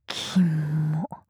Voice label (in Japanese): "きも", 強く, 極近, 右; マゾオスのあなたに敗北射精の快楽を叩き込む音声